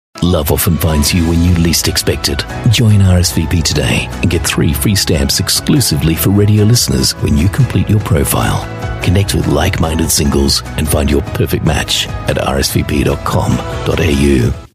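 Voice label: AU English National Radio Campaign, Australian English; RSVP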